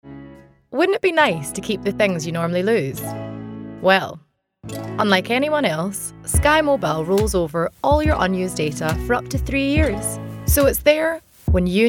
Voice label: Commercial

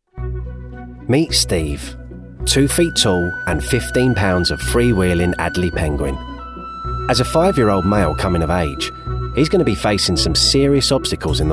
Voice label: Documentary

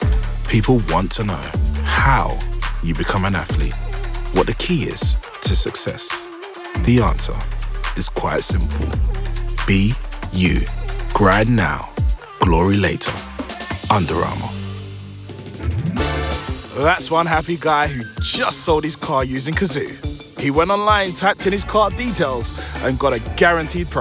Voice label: Commercial

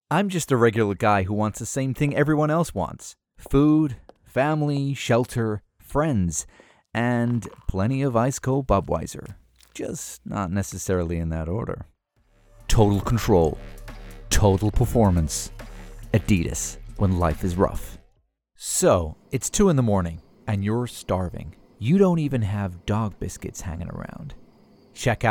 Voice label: US Voice

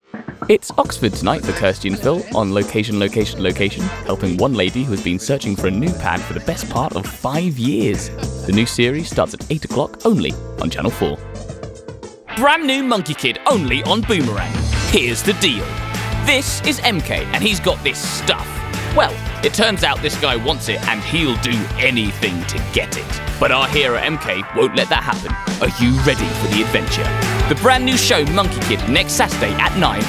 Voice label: Continuity

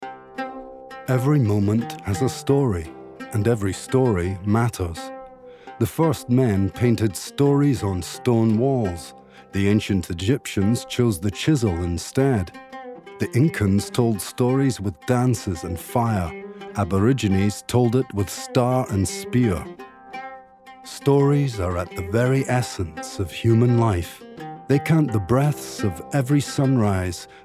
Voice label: Documentary

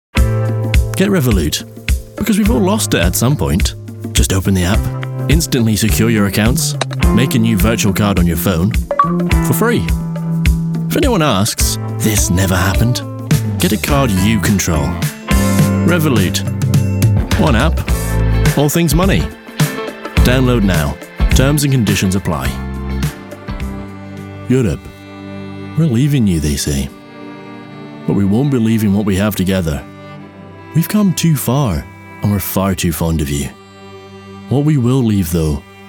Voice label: Commercial

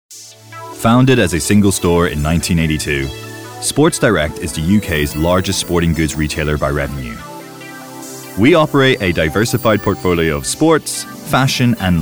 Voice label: Narrative

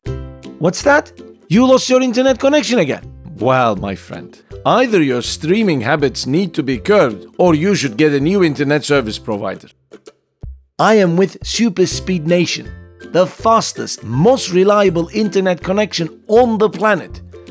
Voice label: Commercial English

